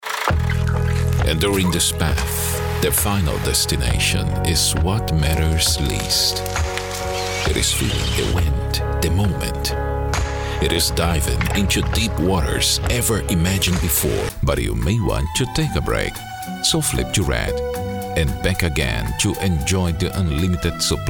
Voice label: English International; Portuguese-Brazilian, Male, Home Studio, 30s-50s

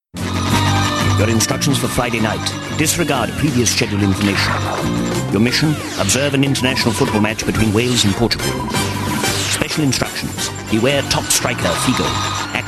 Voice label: Welsh

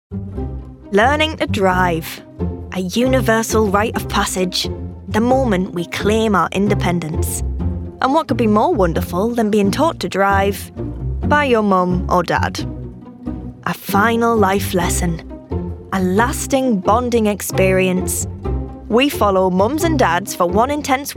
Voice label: Documentary; Teens-30s; Female; North East

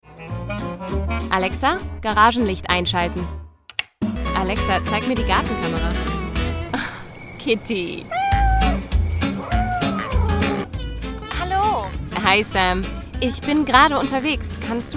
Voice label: German

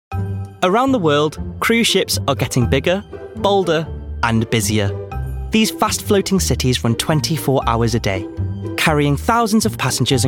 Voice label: Documentary

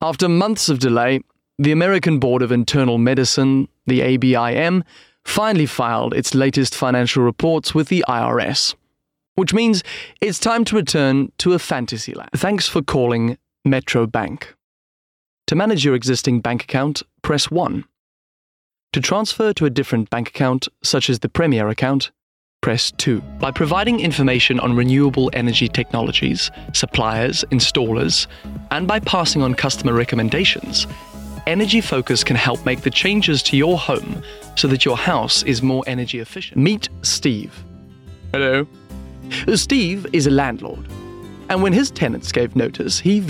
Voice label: corporate

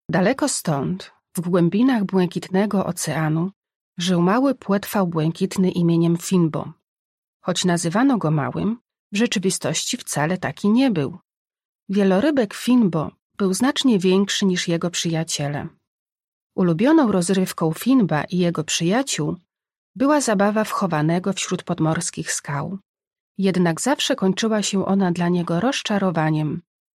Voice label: Polish

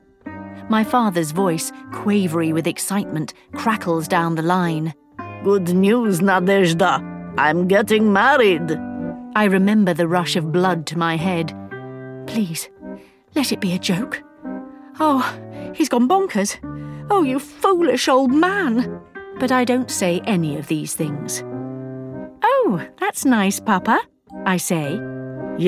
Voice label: Audiobook; home studios

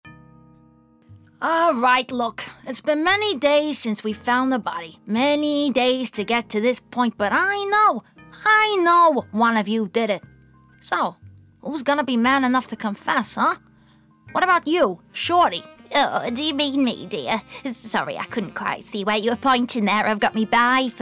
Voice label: Animation

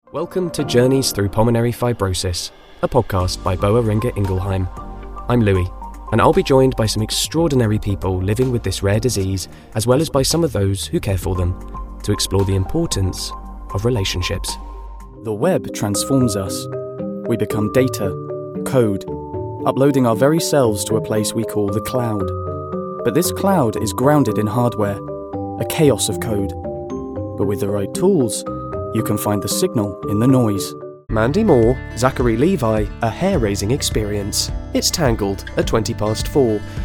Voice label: Narration

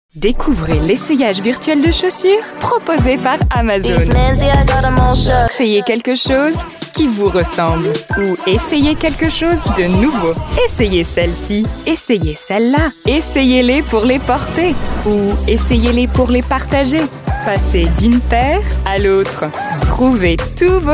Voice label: French

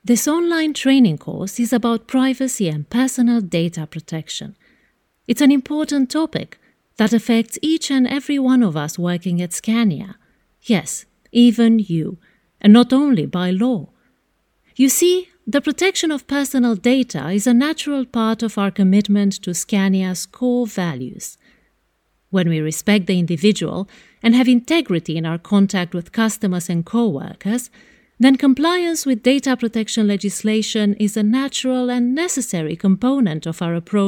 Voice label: Romanian, Female, 30s-40s; Eastern European; Based in LA

